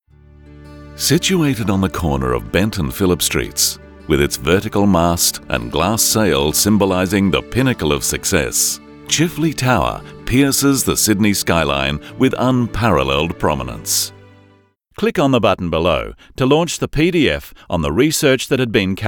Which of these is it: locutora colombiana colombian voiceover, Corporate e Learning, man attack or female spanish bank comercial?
Corporate e Learning